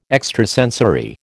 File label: "extrasensory", American